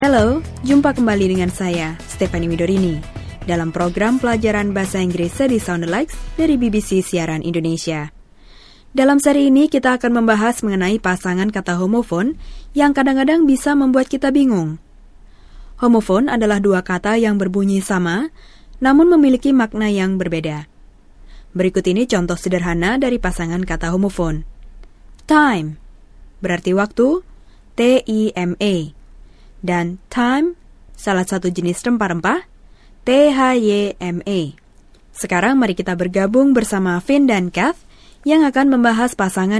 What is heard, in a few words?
indonesian